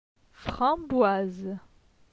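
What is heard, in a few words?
"Framboise", French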